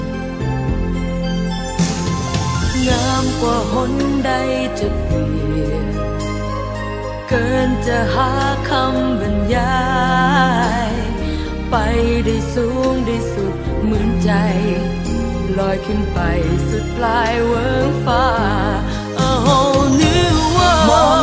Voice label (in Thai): Thai